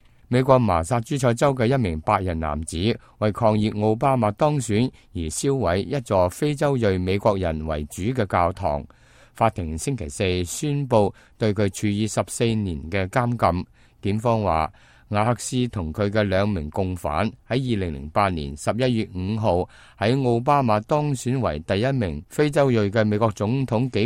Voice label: cantonese, news